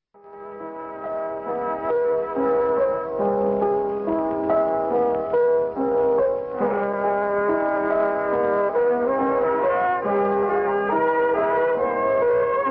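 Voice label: Radio Nepal 729 kHz sign-on English, Nepal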